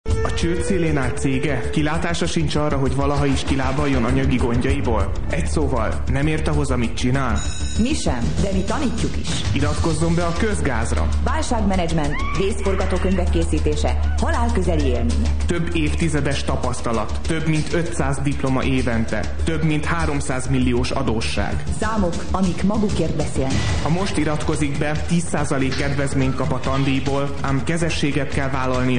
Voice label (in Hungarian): reklam; kozgaz